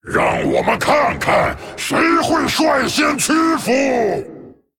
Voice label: Male Dragon GREETINGS; zh

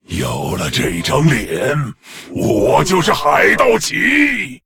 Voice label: Male Lich PIRATE DAY, zh

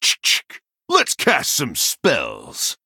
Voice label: Male Human Picked; en

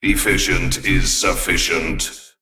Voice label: Male Mech Play; en

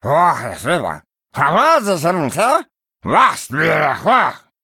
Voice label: Male Murloc Threaten

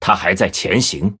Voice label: Male Blood Elf ERROR STEALTH, zh

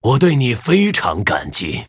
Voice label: Male Human Thanks; zh

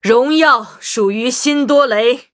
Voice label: Greetings, zh

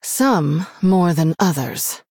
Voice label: Female Human Greetings; HERO

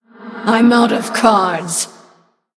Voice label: Female Human NoCards; en

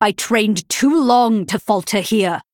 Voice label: Female Nightborne Threaten

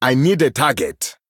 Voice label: Male Troll, ERROR TARGET; en

